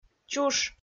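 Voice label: "чуш", Komi-Zyrian, female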